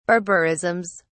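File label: "barbarisms", British English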